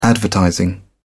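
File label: "advertising", British English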